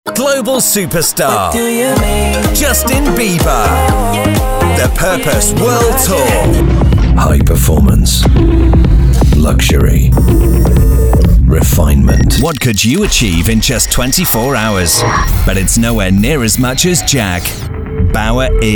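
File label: British English commercials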